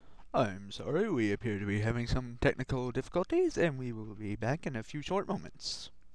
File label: announcer